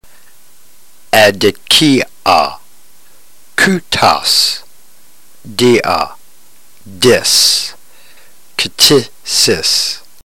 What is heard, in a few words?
drill, ntgreek